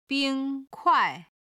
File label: "bing1kuai4", chinese